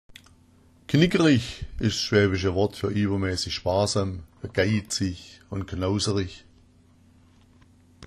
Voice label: "knickerich", schwaebisch

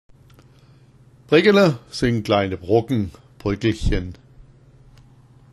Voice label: breckela, schwaebisch

